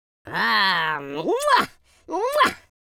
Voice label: happy, Viking

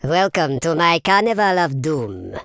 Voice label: brilliant, Super Villain, speech

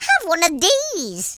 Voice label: "dragon punch", Irish; speech

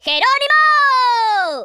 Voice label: kamikaze, Spanish

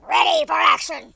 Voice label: "orders", speech

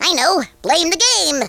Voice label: "oh dear", Conscience; speech

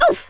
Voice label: oof, Welsh; speech

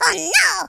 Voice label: uh oh, speech, French

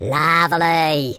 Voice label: "collect", speech

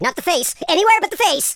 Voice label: "leave me alone", super hero speech

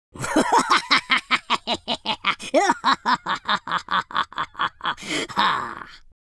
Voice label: happy, speech

